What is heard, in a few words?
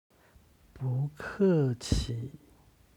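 "bu ke qi", Chinese; 不客气; どういたしまして; HSK Level One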